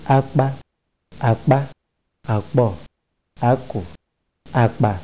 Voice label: ibibio; [ák͡pá àk͡pá àk͡pɔ̂ ákù àk͡pâ]